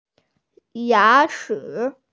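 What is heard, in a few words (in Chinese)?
"ya2chi3", Mandarin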